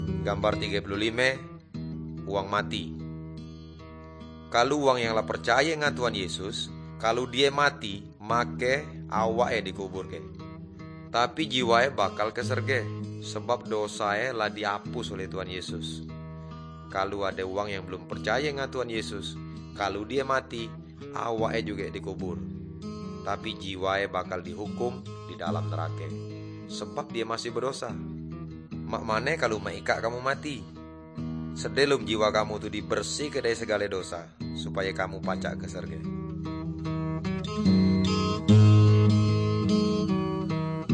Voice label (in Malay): good news melayu tanjung raja